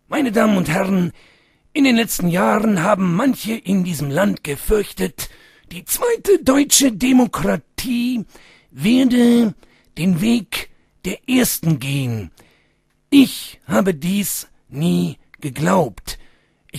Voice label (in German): Imitation Willy Brandt